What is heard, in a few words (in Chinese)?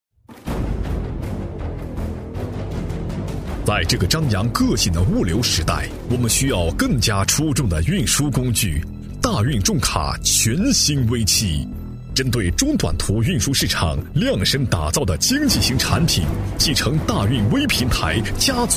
男 产品宣传 厚重起伏; 大运重卡全新V7